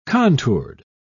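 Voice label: "contoured", British English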